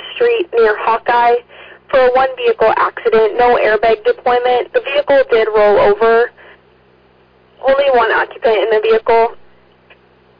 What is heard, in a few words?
Ambulance, dispatch